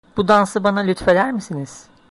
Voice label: dance, turkish